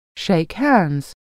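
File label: "shake hands", English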